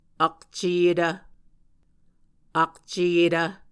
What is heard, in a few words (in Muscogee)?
"akceyetv", Muscogee